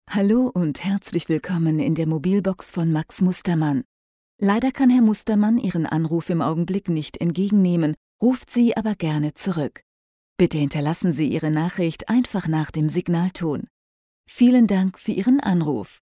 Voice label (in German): Telefonansage deutsch